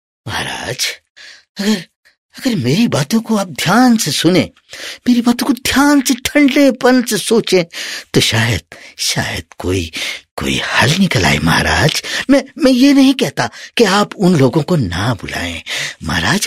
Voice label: Hindi Mantri Character, male voice

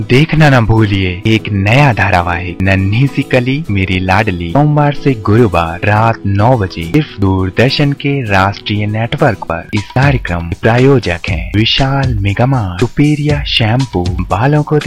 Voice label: Promo Hindi, male voice

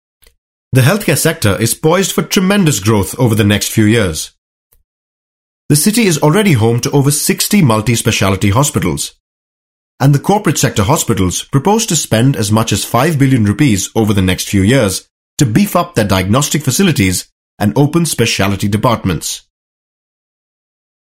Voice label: english vo, male voice

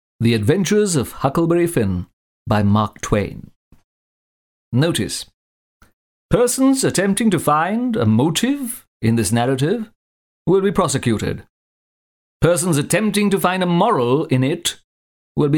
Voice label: english mono, male voice

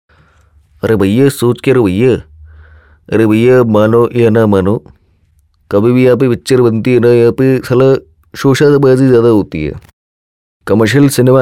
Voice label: Mimicry, male voice; irfan Khan

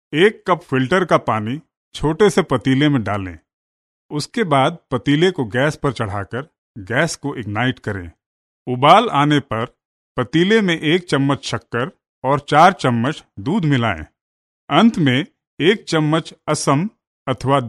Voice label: hin delhi, male voice

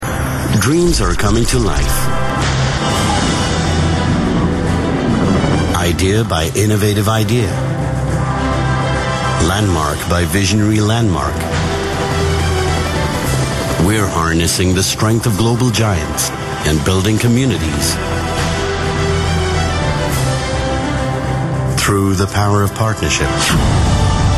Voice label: american, male voice

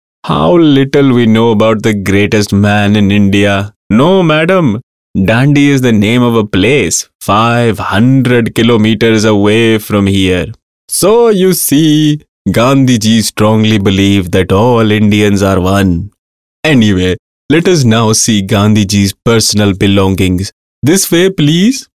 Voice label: Characters English, male voice

